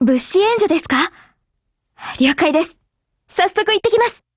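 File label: operation begin, Japanese; GF Alarm; Carcano1891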